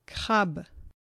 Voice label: crab, fr; FoodChain